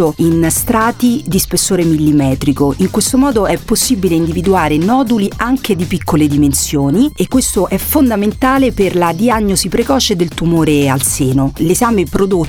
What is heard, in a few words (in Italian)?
Intervista Mammografia; RadioM100